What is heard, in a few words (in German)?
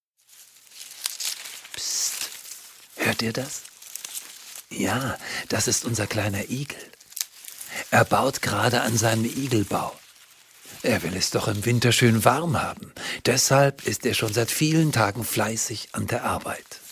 Hoerspielszene